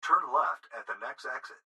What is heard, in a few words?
Gps Voice, Male